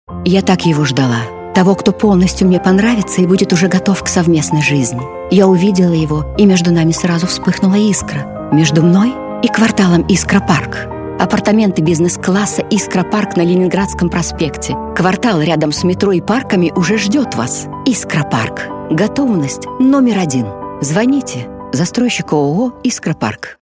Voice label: russian voice over female commercial